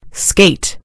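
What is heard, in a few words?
"skate", British English; gcompris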